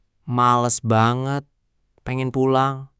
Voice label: indonesian phrases